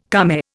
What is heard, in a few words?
"kame", Indian